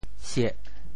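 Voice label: "sieh4", Teochew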